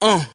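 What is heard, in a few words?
"uh", male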